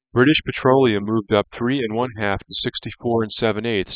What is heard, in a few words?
male male